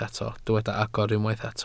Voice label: "agor", Welsh

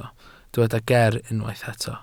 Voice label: "ger" Welsh

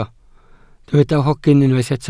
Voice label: "hogyn" Welsh

